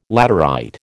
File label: "laterite", American